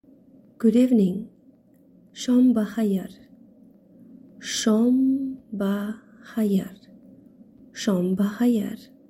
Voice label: Good Evening, Tajik